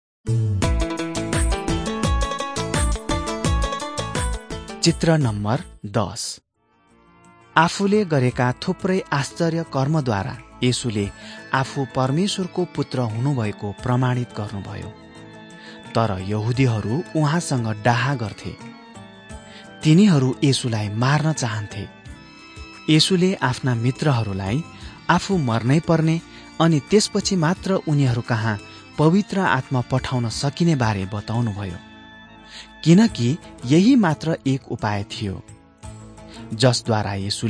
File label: jesus lord and saviour nepali